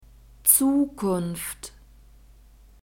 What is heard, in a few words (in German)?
"zukunft", German; nouns gender feminine